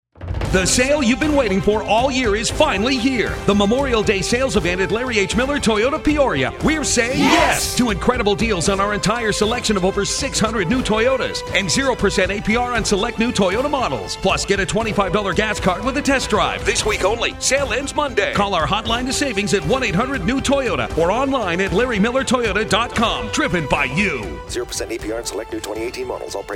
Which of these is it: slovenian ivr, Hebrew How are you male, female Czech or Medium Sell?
Medium Sell